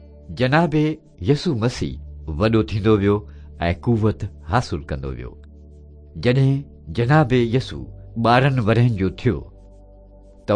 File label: good news sindhi, drama; sindhi pakistan; bible